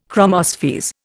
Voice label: "chromospheres", Indian

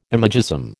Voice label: "imagism", American

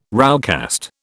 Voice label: "roughcast", British

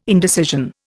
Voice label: "indecision", Indian

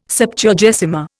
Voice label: "Septuagesima", Indian

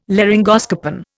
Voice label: "laryngoscopen", Indian

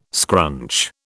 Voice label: "scrunch", British

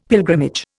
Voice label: "pilgrimage", Indian; Dictionary

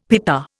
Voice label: "pita", Indian; Dictionary

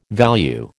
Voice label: "value", American

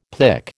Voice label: "pic", American

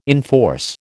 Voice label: "enforce", American

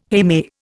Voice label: "heme", Indian

